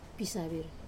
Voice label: "pisavir" crazy, Wamesa

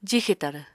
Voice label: "jixe tarw", apatani

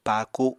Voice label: "paaku", apatani; Household Items

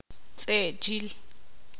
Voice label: ixil; quemar

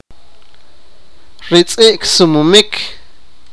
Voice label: sipakapense; the dog runs

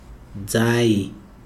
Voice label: "egg", Chikunda

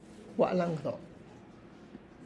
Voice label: I went to church, Tlacochahuaya Zapotec; gua' la'n gdo

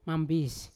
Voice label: "mambis", Yawa; four

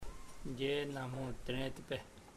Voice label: he doesnt want to come, chamacoco; Puerto Diana

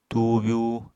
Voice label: "tuubyú", apatani

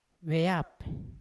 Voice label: "veyap" we them, Yawa

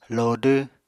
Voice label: "lodw", Apatani

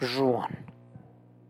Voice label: owner of an animal, Zapotec; bzhuan

